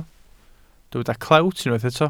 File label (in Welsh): "clewt" Welsh